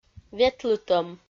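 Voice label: "ветлытӧм", Komi, female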